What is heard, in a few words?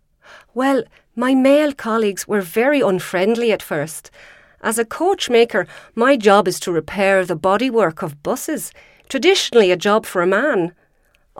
Irish bus driver